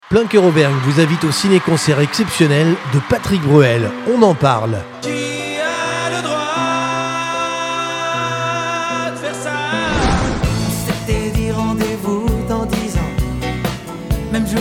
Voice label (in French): promo; PLEIN COEUR; MOULINS